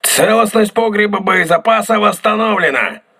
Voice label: voice message ammunition storage repaired, crew ships russian